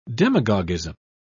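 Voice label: "demagogism", British English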